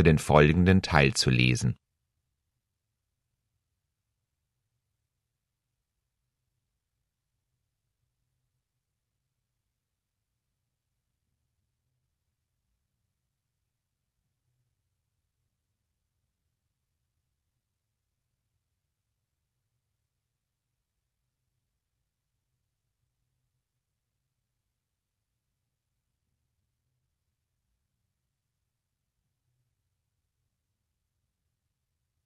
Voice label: german directions; part b

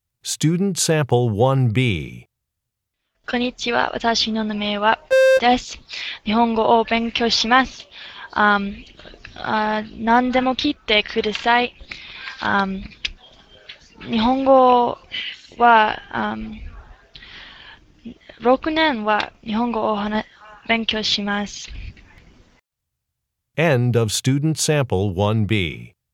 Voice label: japanese conversation

